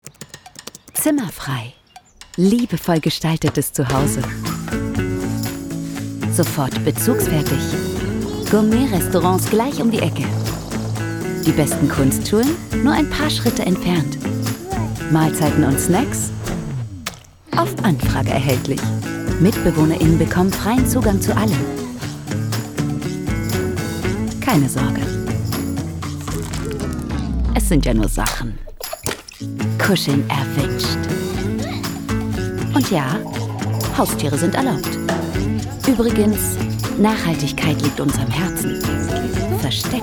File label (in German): Werbung